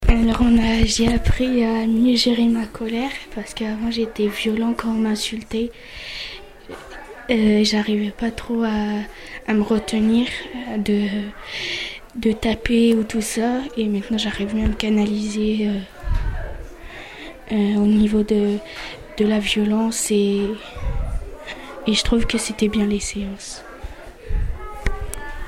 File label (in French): témoignage enfant; mieux gérer ma colère